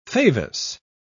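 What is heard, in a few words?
"favus", British English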